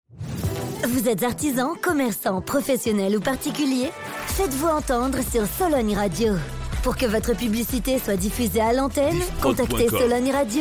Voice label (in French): Radio Spot Autopromo Regie; Sologne Radio